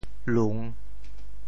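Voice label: "lung3", Teochew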